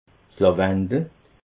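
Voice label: "lawendel", Alsatian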